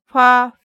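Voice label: "fa", Japanese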